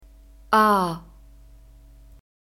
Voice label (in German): "a", German alphabet